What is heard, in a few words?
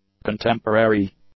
"contemporary", English; nouns